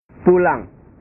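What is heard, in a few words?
"pulang", Indonesian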